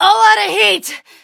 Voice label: defeat, en; Cookie Run